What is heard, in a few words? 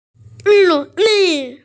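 "ri li", Mandarin Chinese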